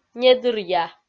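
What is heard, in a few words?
"недыръя", Komi, female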